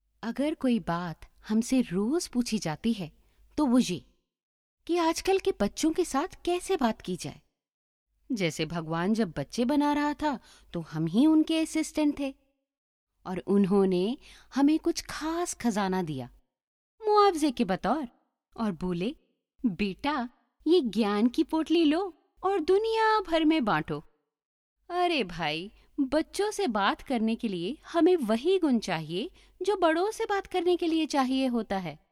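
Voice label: hindi narration, Weiblich; India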